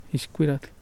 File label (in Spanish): lagaña, Mexicano Temixco Xoxocotla